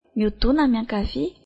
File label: Turn left, malagasy